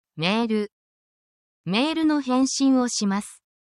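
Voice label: Japanese word, essential Japanese phrases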